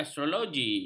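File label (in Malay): "astrologi", Malay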